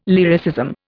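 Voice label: "lyricism", Indian English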